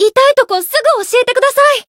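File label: Voice variable chant, Japanese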